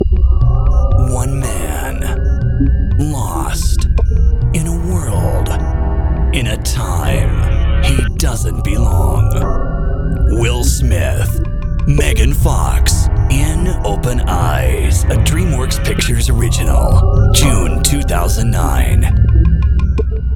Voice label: MOVIE TRAILER